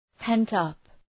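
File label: "pent up", English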